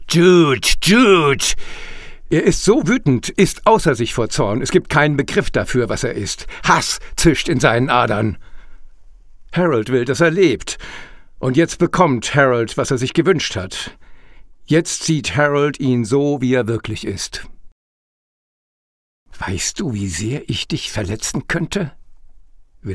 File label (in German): Hoerbuch; Ein wenig Leben